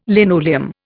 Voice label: "linoleum", Indian